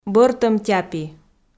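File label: "бӧртӧм тяпи", Komi, female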